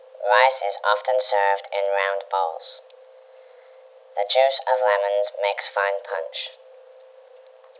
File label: speech shifted